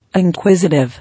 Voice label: "Inquisitive", English